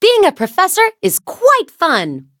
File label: level up, en; Cookie Run